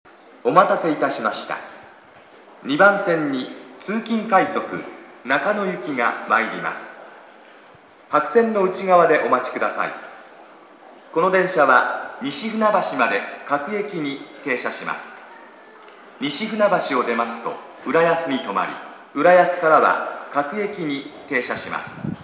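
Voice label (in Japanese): 接近, 駅放送; 2番線; yachiyo-chuo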